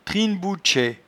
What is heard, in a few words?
"trinnebouetchet", patois jura